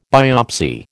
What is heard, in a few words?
"biopsy", American